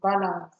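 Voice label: "balance", Nigerian Pidgin, female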